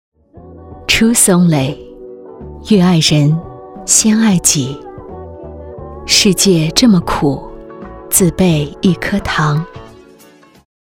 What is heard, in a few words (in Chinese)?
广告磁性质感女性服饰